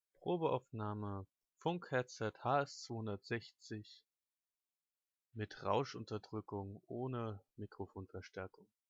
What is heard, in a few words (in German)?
Sprachaudnahme Rauschunterdrückung, Headset; headset hs 260